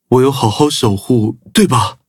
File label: victory, zh-hant; cookie run